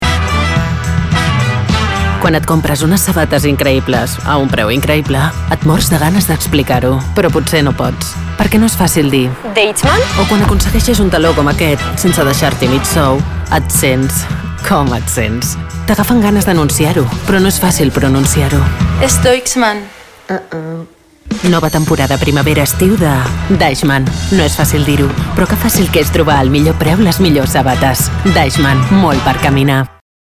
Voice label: SPOT PLAYFUL DESCARADA